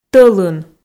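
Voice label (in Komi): "тӧлын", Komi, female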